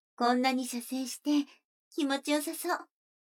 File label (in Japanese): 女の子台詞